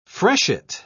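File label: "freshet", British English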